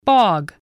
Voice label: "bog", spelling

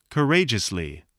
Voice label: "courageously", voice spelling

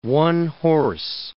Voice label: "one-horse", English

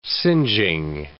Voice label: "singeing", words

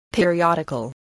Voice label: "periodical", English, voice

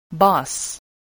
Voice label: "boss", spelling

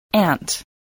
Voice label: "ant", spelling

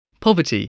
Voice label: "poverty", English

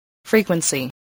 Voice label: "frequency", voice

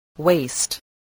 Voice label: "waste", spelling

